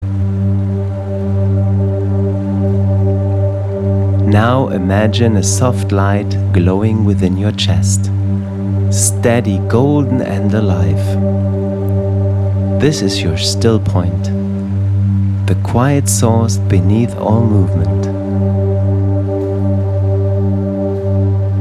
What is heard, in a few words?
Meditation for inner stillness english; calm center meditation for serenity